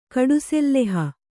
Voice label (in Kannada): "ಕಡುಸೆಲ್ಲೆಹ", Kannada